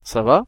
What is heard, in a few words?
how are you, french